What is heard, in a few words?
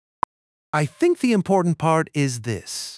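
speaker male fast mid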